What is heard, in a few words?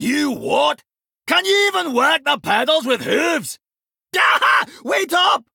Male Dwarf; Tavish